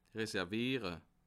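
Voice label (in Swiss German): "reserviere", Bas Rhin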